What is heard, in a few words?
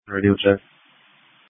Police Tactical Encrypted